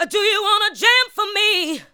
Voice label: ADLIBS 90; Ueberschall; Jam Box